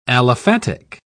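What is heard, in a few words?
"aliphatic", British English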